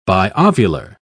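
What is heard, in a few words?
"biovular", British English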